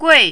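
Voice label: "gui", fourth tone, Mandarin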